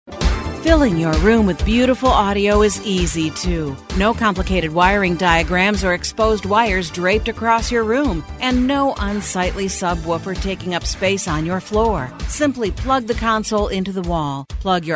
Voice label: explainer cabinet; yamaha